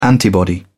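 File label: "antibody", English, British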